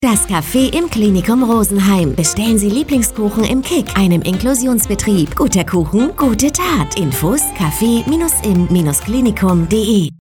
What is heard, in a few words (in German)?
Funkspot; Weisser Rabe